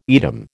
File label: "Edam", American